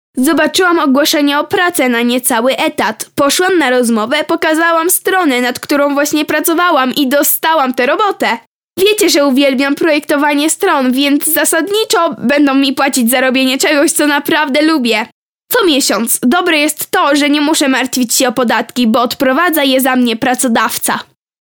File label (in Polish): Reklama, kobiecy